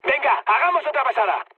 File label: bomb failure, mood high, speech; War Thunder